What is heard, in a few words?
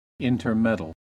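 "intermeddle", US English; pronunciations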